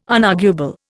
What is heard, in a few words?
"unarguable", Indian